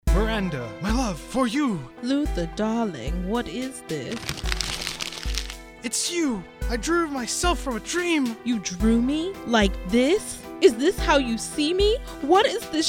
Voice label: promo soap; drawdesign